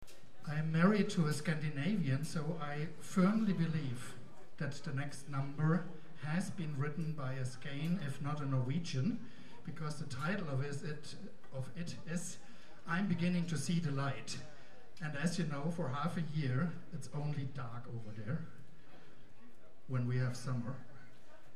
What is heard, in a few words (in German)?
Ansage